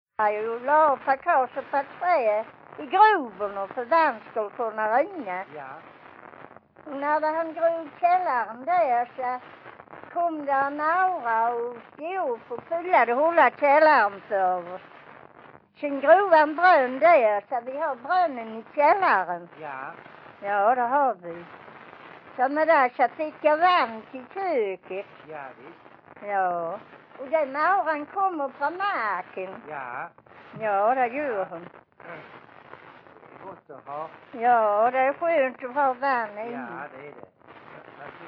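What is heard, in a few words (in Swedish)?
Vånga Skåne, Svenska sagor, dialekter; Folkminnen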